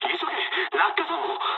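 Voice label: Japanese bailout mood low; War Thunder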